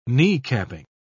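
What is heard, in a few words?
"kneecapping", English, British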